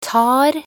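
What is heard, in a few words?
"tar", Norwegian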